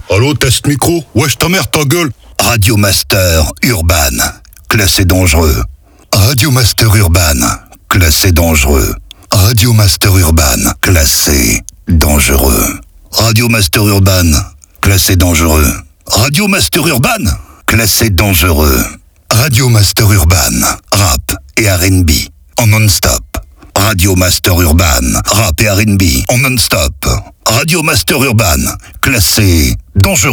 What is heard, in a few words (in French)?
voix off; Radio Master Urban; Habillage